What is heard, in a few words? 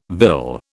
"vill", British